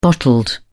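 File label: "bottled", British English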